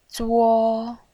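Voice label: "zuo" Mandarin Chinese, first tone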